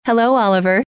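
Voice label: hello, female; trainer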